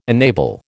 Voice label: "enable", American

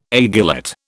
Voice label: "aiguillette", British

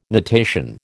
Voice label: "natation", American

